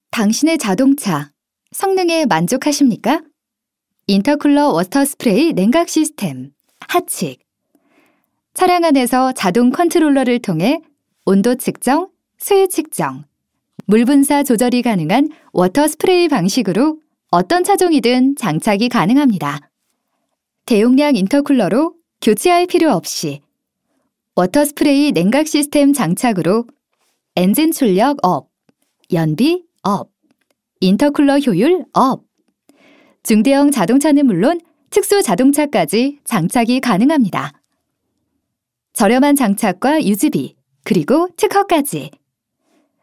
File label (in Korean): 성우 내레이션 자연스러운 편안한 상냥함